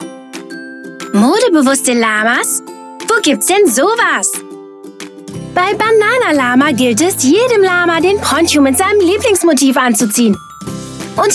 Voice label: Werbung Kinderspiel

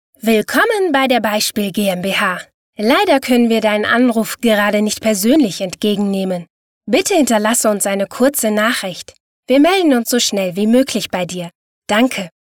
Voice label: Telefonansage offen

